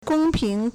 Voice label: "gong1 ping2", Chinese